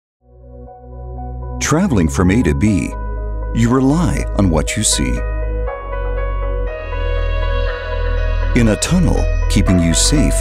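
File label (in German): American English Dynamisch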